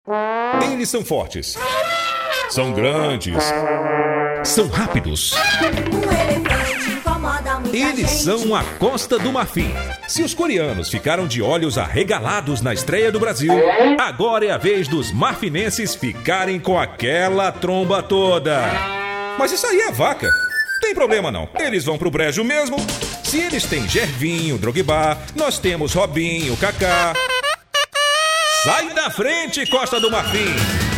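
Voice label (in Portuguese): Masculino, Português - Brasileiro, Chamada; Chamda BrasilxCosta do Marfim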